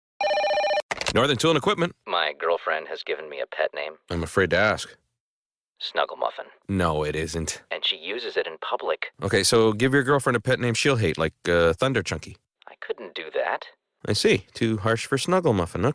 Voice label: Radio Ad; Best Radio Ad Ever!

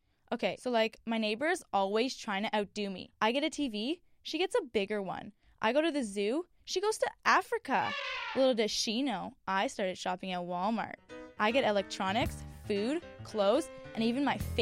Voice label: Radio Commercial; Walmart

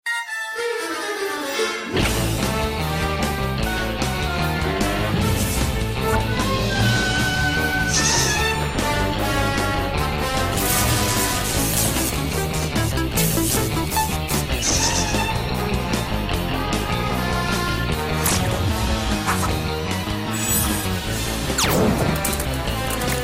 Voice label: Christmas, voice over; Radio Shack

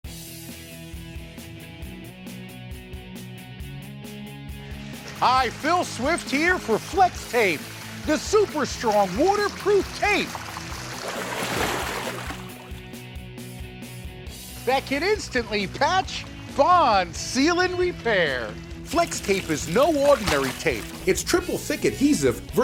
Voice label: Commercial; FLEX TAPE®

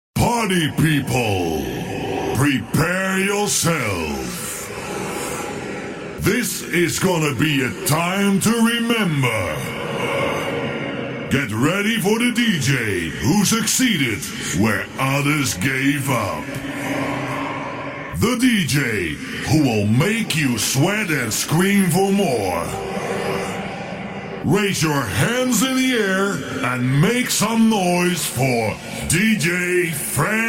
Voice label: DJ Voice over

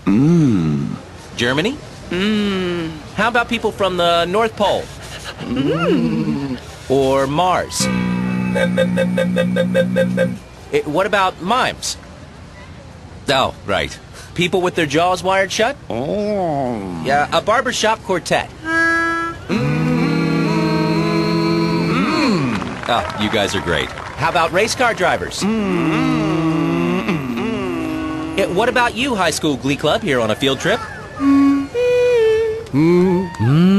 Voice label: LIPTON GREEN LEAF TEA 'Mmm' (Radio), adverts

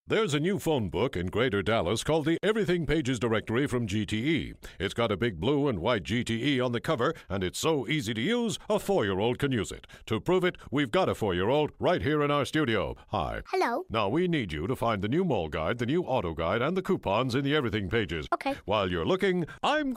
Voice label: Radio Commercial; GTE Everything Pages; 'How I Got Into Radio'

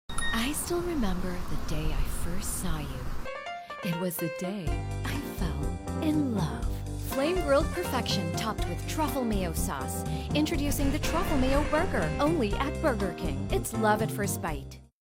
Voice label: Advert; Burger King; Love at First Bite

